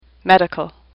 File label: "medical", English; AWL